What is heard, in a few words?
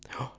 ingressive "ja", male; JIPA 2008